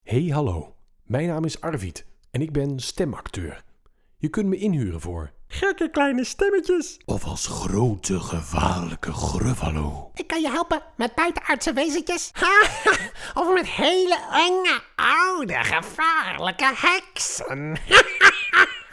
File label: Funny Voices